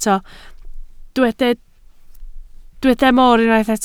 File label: "mor", Welsh